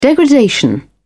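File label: "degradation", British English, dictionary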